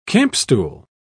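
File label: "campstool", British English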